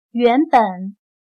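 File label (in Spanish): "原本", chino mandarin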